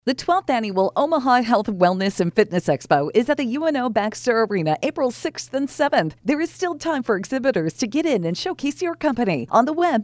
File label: radio commercial Health Expo Vendors